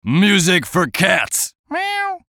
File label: VOICE COMICAL; Music For Cats; Funny Sound; Radio Voice; Blastwave